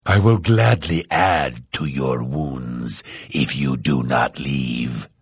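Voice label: Hello, Vo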